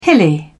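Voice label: "hilly", British English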